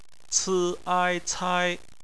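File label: "cai", bopomofo, chinese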